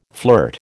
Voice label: "flirt", American

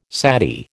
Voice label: "sati", American

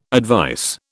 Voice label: "advice", British

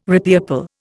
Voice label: "repeople", Indian

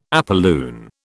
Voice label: "apolune", British

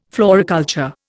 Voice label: "floriculture", Indian

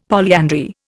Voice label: "polyandry", Indian; Dictionary

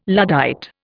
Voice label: "Luddite", Indian

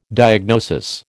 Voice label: "diagnosis", American

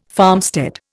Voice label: "farmstead", Indian

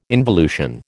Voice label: "involution", American

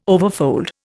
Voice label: "overfold", Indian

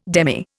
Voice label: "demy", Indian

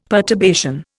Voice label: "perturbation", Indian; Dictionary

